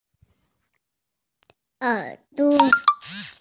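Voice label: "er duo" Chinese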